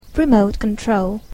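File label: "remote control", English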